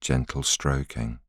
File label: voiceover